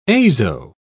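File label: "azo", British English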